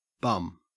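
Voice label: "bum", Australian English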